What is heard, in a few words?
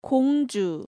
"Gongju", Korean